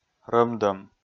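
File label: "рӧмдӧм", Komi, male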